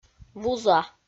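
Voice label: "вуза", Komi, female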